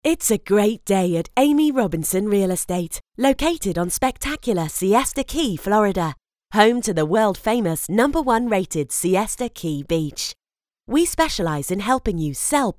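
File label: English, female; lektoring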